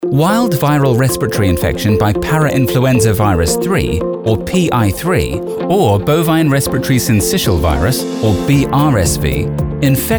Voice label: British Medical Technical

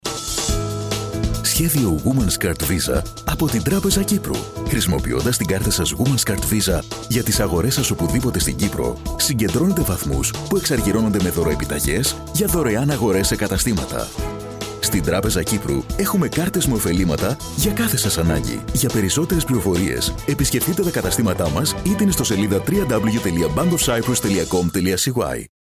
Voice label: Greek Radio spot

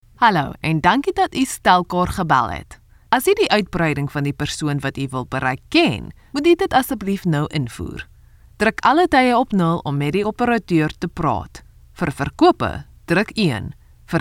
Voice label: Afrikaans IVR

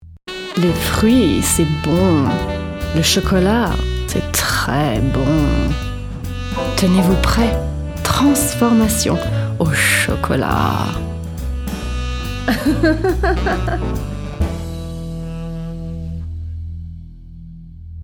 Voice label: French Chocolat

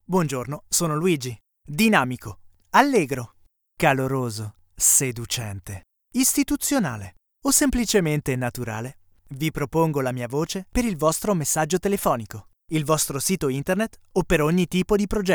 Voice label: flat

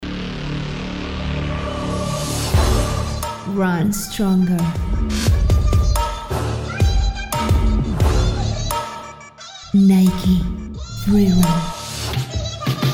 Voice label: English

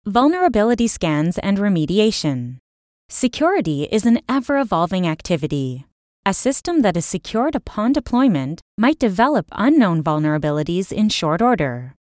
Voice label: ELearning Training

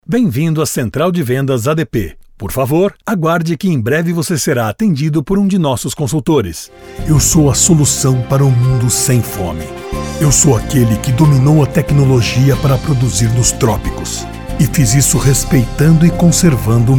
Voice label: Institutional

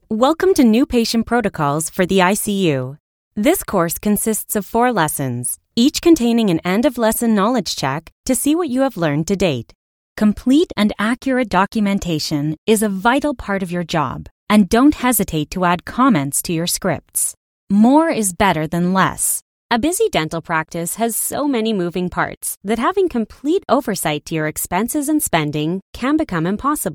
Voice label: eLearnin Training

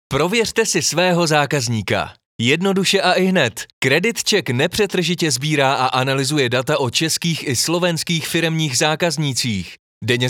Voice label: Promotional

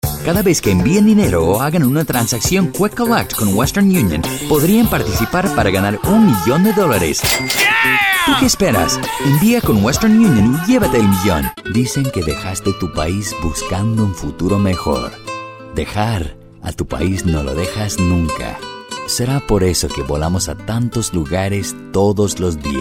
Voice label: Spanish Commercial

